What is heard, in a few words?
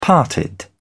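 "parted", British English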